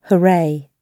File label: "hooray", English